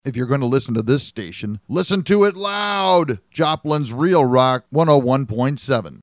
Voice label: sweeper